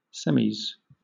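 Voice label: "semis", British English; pronunciations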